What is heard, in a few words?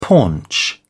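"paunch", British English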